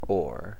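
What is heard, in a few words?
"ore", American English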